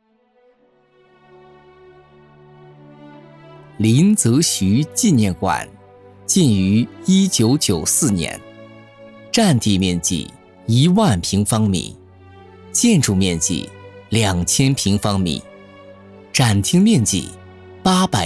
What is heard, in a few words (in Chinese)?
专题纪念馆解说